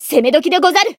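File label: limit break, Japanese; azami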